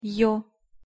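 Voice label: "ё" Russian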